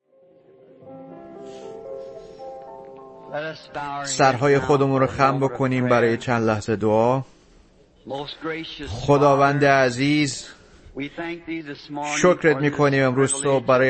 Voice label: Farsi Voice Over; Marriage and Divorce